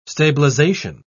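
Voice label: "stabilisation", British English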